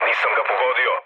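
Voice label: Serbian bomb failure mood low, speech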